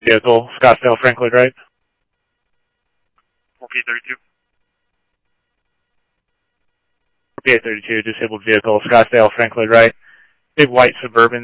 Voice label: PD Police, scanner audio